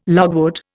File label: "logwood", Indian